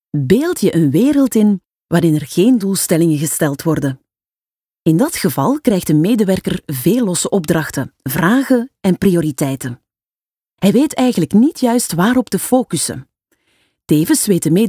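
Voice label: Flemish, female, corporate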